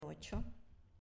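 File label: "Ocho", Spanish, female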